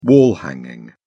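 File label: "wall hanging", British English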